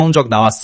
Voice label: neutral

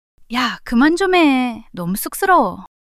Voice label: shy

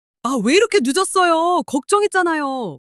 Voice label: anger

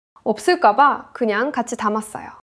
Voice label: neutral

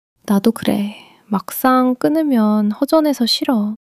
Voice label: sadness

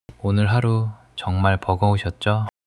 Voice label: sadness

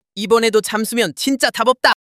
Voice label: anger

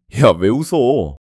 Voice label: fluster

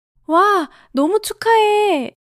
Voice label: excitement

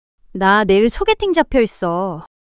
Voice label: fluster

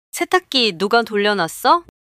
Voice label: neutral

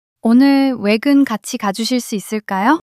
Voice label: shy

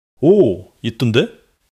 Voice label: joy

